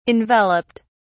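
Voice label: "enveloped", English, British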